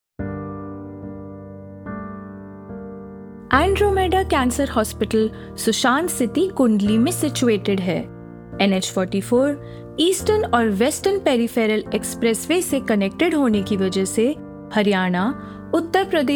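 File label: Explainer Hindi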